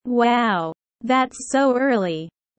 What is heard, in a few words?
low